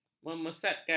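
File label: "memesatkan", Malay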